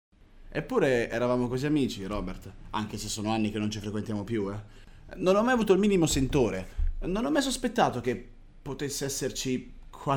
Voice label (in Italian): sigmatismo; articolazione; storia